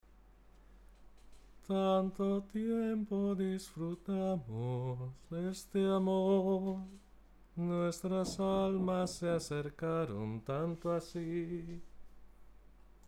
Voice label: male; Patata caliente